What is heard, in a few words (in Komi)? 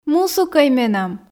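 "мусукӧй менам", Komi, female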